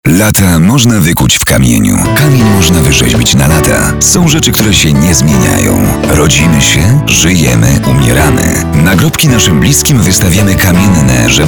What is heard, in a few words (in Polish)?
spot reklamowy